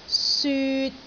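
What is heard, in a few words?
"syut3", Cantonese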